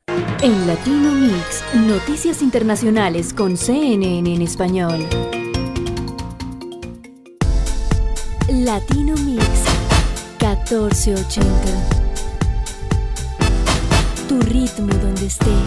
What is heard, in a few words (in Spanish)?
Locutora colombiana